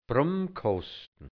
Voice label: "Brummkostn", Burgenland Dialekt hianzisch; hölzerne Verkleidung des Brunnens

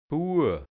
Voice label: "Bua", hianzisch Dialekt Burgenland; Bub

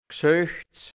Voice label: "Gsölchts", Hianzisch, Mundart, Burgenland; Geselchtes

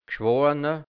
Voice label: "Gschwoana", Hianzisch, Mundart, Burgenland; Geschworene; Gemeindevertreter

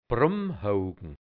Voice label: "Brummhoukn", Burgenland Dialekt hianzisch; Brunnenhaken

